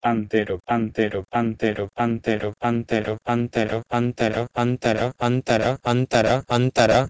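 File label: Proto-Indo-European h2entero to Sanskrit antara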